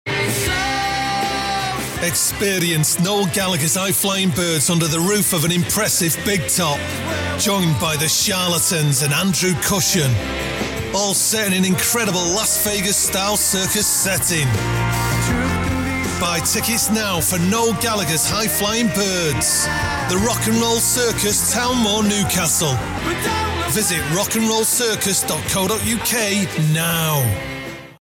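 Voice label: rock promo, Natural Manchester Voice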